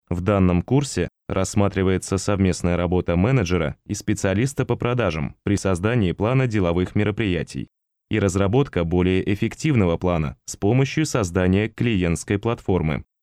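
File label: Russian Russia male narrative; russian voice over talent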